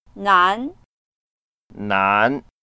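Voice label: "nan2", Mandarin Chinese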